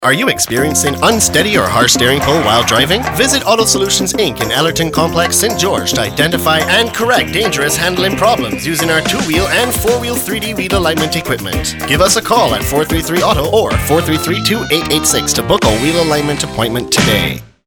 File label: english international automotive